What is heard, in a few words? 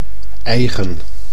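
"eigen", Dutch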